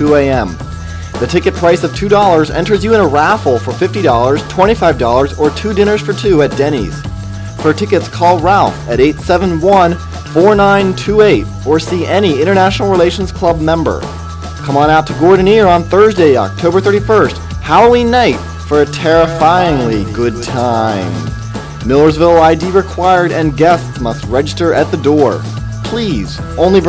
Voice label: Dance Promo; International Relations